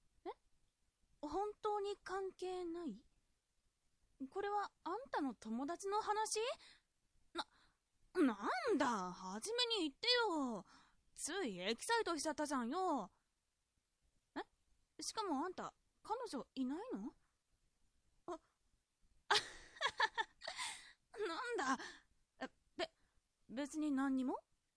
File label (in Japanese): josei